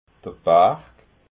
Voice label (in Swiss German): "barg", alsace